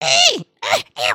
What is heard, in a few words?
volunteer, emotes